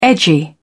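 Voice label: "edgy", British English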